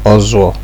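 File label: "ozuo", Nigerian Pidgin, male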